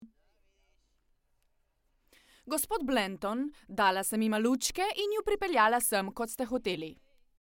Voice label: female slovenian character; devine voices

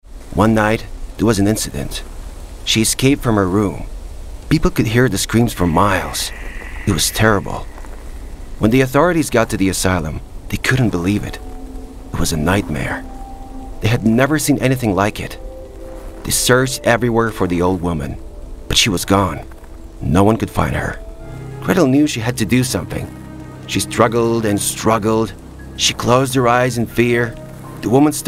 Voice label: male, English with USA accent, VR 360 narration

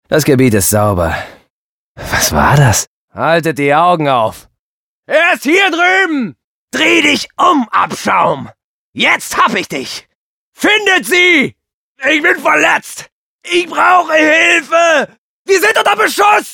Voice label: male German character compilation

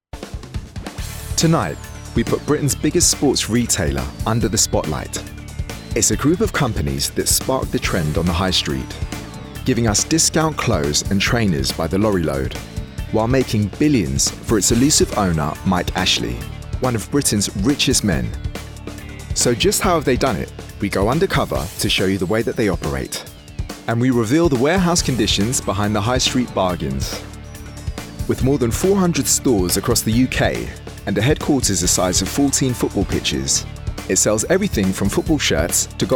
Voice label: male english documentary; sportsdirect

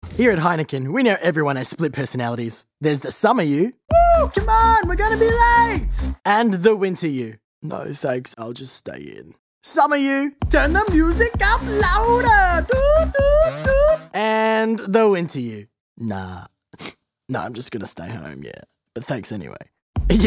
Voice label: male australian accent commercial; english; heineken

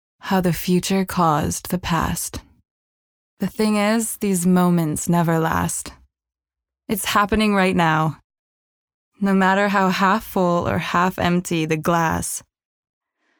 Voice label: female English USA transatlantic accent poem; voices; devine voices

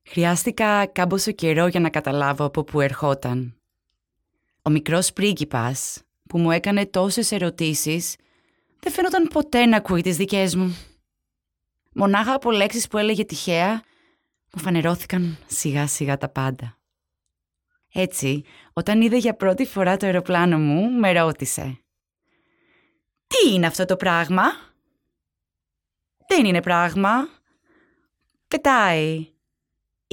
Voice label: female Greek narration; the little prince

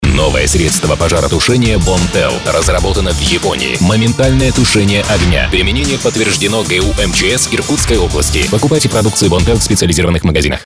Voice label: male russian and ukrainian russian commercial

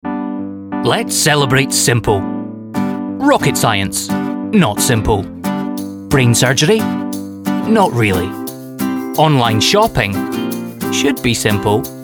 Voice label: male english scottish commercial

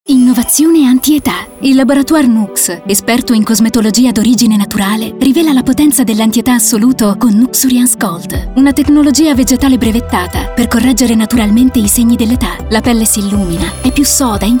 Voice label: female Italian commercial; nuxe

